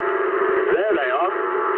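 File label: English enemy detected mood low; War Thunder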